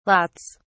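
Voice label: "lots", British English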